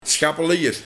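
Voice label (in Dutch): "Scapeliejer", dialect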